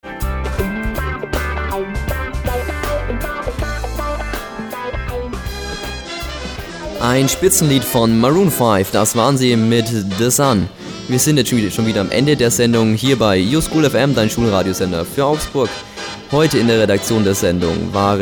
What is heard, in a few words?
aircheck